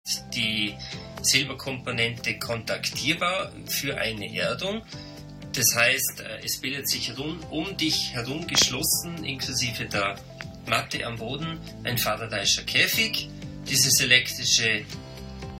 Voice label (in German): sprache headset apple